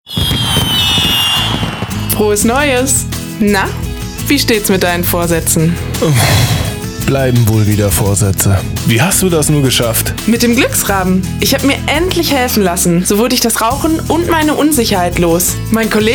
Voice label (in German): spot; glücksrabe